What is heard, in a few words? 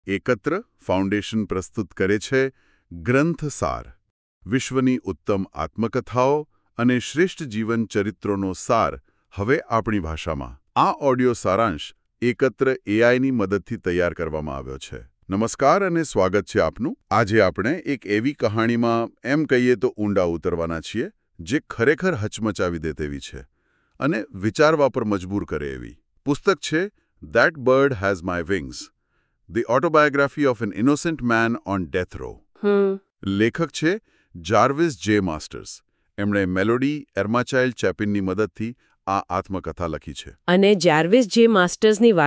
gujarati; that bird has my wings